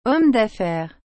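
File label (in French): "homme daffaire", French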